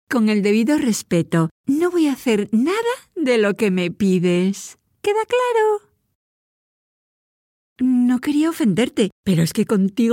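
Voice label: spanish voice gaming, Female Castillian Spanish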